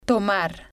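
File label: "tomar", Spanish, female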